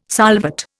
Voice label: "solvate", Indian